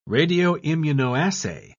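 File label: "radioimmunoassay", British English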